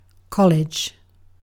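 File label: "college", British English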